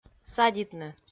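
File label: "садитны", Komi, female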